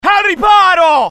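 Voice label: fear grenade, IT; mohaa; dialogue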